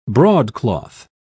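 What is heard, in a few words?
"broadcloth", British English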